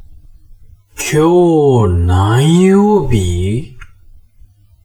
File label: man